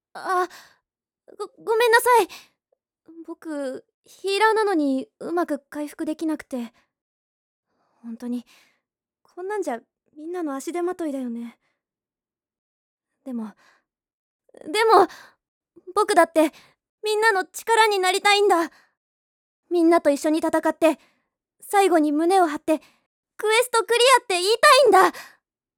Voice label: 弱気な少年

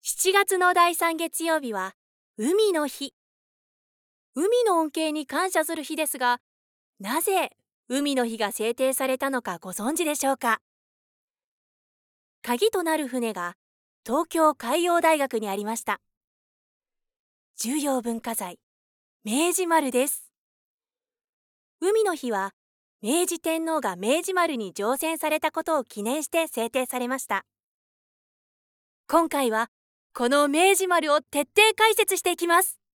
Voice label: ナレーション

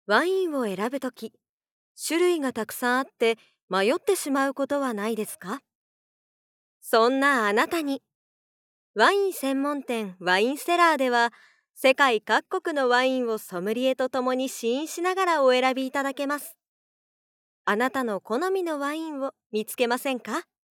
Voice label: ナレーション

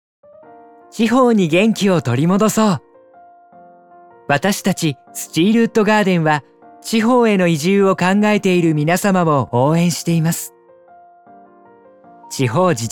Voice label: VP風ナレーション

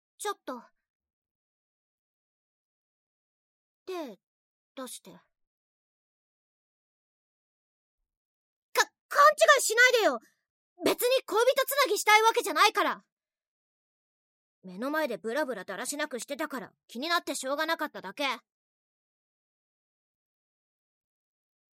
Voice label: ツンデレ; 女性

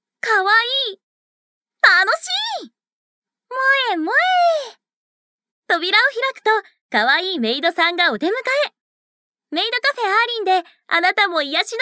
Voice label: メイドカフェキャラナレーション

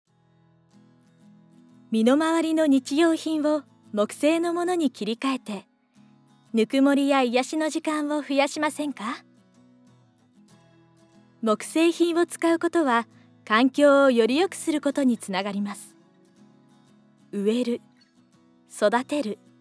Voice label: ナチュラル

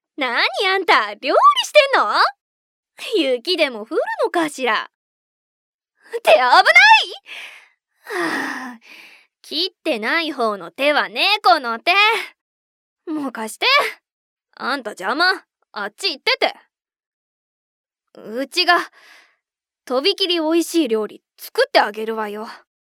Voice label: ツンデレ

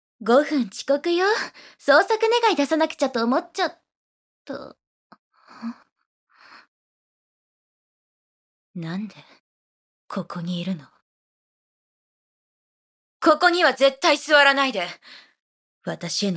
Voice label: 成人女性

